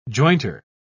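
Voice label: "jointer", British English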